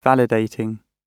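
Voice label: "validating", British English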